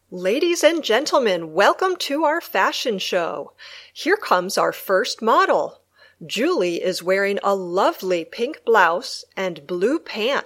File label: dialog